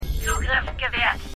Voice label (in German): Computerstimme; The 100